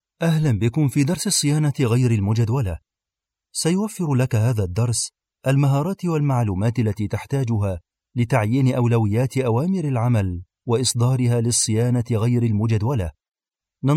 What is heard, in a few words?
male arabic voice over narrative